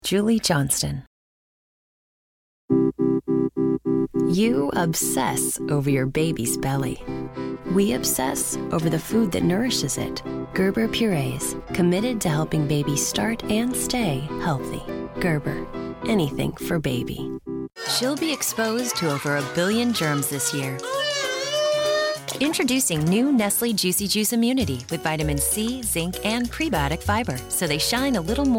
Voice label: commercial; Innovative LA